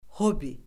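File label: "hobbi", Azerbaijani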